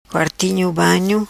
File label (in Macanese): Quartinho banho, Macanese